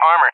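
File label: "armor", English, USA; DCS; Allied Flight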